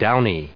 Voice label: "downy", en us